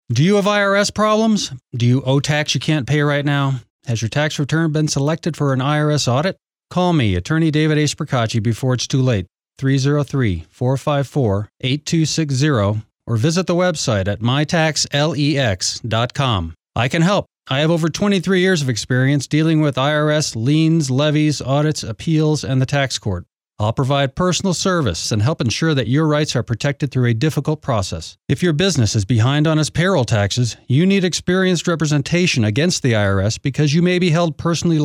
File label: Commercial; MYTAXLEX